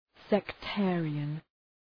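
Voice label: "sectarian", English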